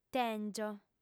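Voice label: "tèngio", female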